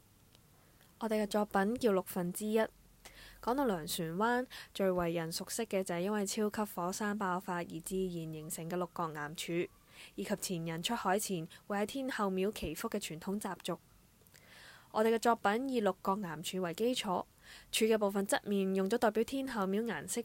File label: audio guide Cantonese; One-sixth Land